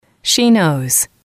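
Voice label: "she knows", English, female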